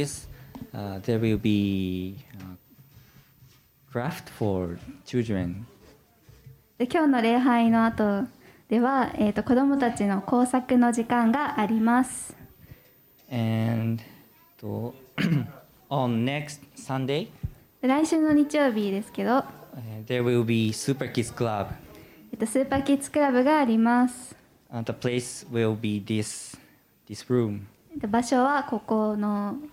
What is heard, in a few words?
announcement; sunday message 2022